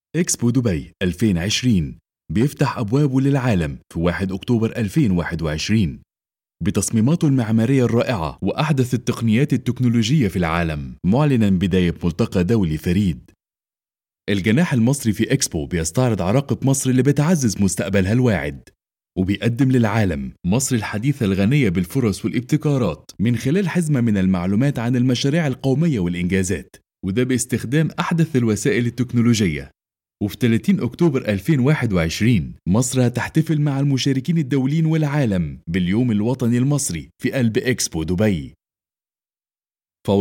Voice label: arabic events